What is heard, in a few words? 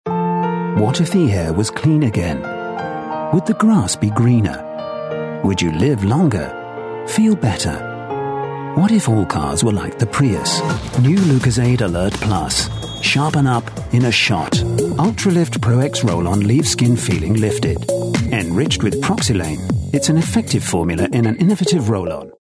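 British English, male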